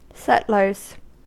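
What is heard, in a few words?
"settlers", American English; pronunciations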